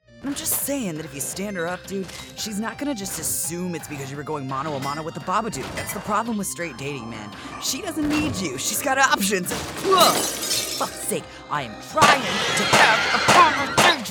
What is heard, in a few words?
Character Reel